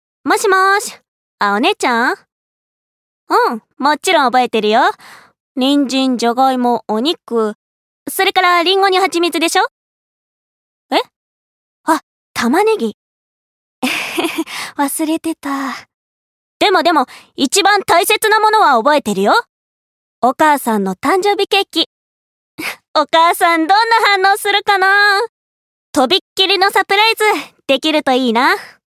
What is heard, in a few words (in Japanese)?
高校生